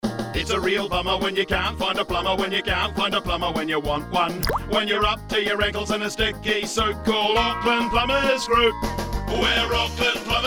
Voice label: Radio advert